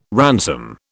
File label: "ransom", British